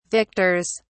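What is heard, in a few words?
"victors", British English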